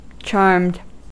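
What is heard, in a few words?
"charmed", US English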